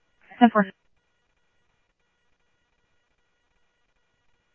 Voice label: Scottsdale Police, scanner audio; H-Scottsdale; SCTSDLE PD - PD1